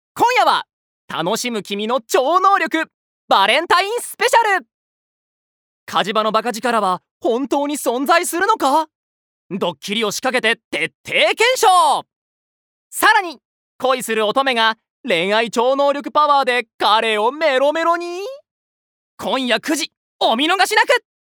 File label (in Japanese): ナレーション